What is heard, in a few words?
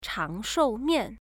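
"changshoumian", Chinese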